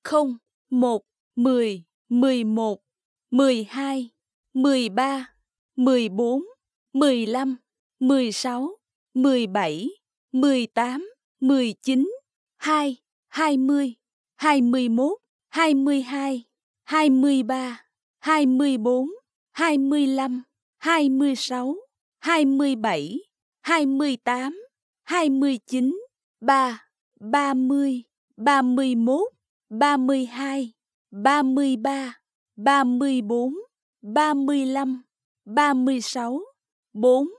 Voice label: Dealer Voice, sk, Roulette; real Dealer European Roulette Vintage